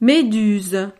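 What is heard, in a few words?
"meduse", French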